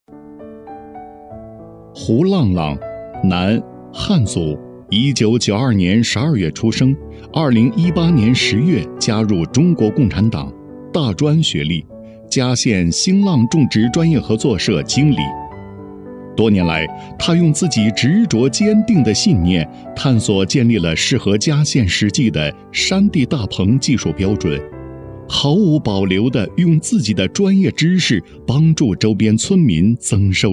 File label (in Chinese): 男 人物专题 种植蔬菜大棚的致富青年带头人; 男30-柔和